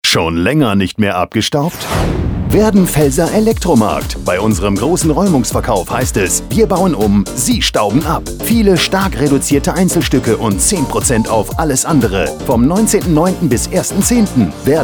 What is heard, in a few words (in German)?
Funkspot; Werdenfelser Elektromarkt